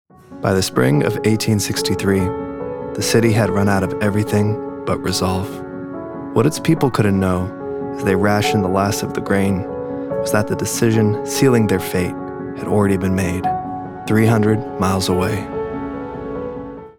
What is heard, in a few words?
narration documentary history, grave authoritative